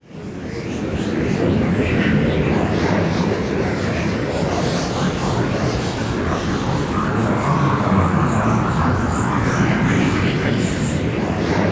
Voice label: NO TALK, WEIRD VOICES; Zero G; Malice In Wonderland